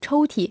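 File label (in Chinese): "抽屉" Chinese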